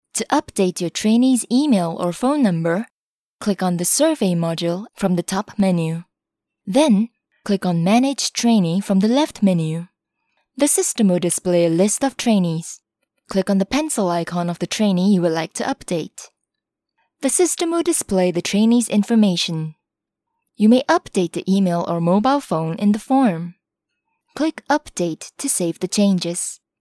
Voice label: 日语女-美式英语

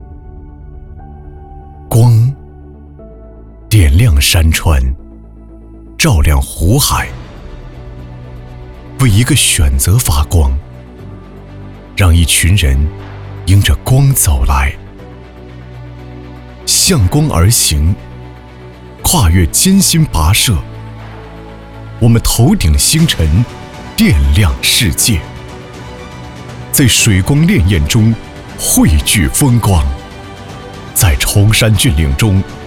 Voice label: 男 专题 旁白渐起; 绿色能源

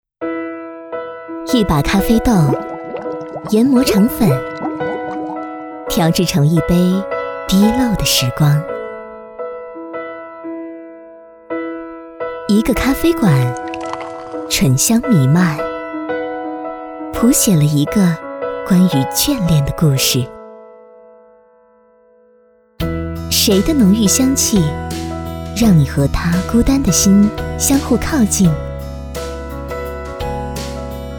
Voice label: 女-质感广告; 左岸咖啡

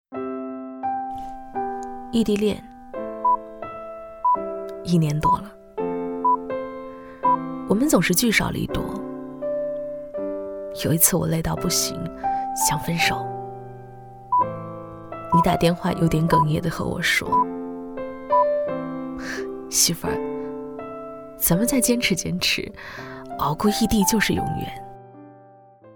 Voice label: 女 旁白 感情段子 异地恋; 抖音

